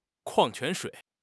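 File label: "kuang4 quan2 shui3", Mandarin Chinese; HSK; HSK4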